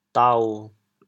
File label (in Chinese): "dao7", 潮州话; 潮阳